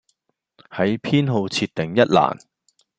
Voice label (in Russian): Голоса, Гонконгский